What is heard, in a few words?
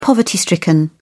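"poverty-stricken", British English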